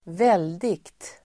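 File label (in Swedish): "väldigt", Swedish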